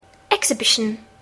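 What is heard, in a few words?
"exhibition", English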